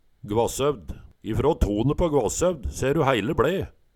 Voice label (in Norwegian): "Gvassøvd", Numedalsmål; Hvasshovd, Gvasshovd